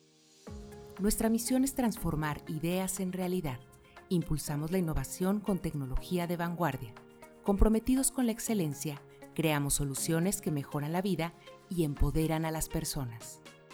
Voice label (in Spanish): Institucional musicalizado